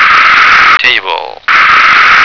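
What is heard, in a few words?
"table", American English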